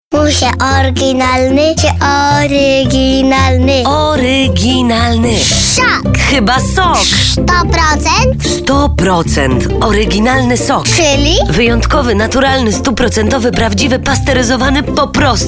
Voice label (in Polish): spot